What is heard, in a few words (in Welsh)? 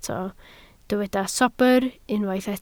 "sobr", Welsh